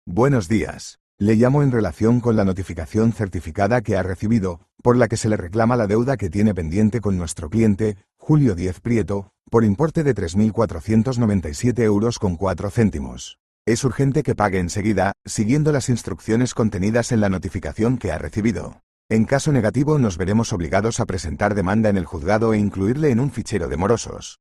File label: audio call